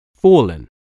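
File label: "fallen", English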